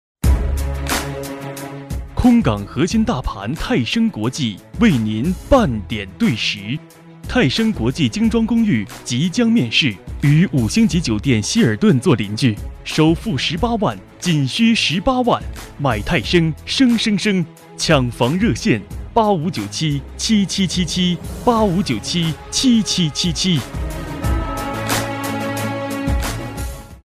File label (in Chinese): 男,广告; 泰升国际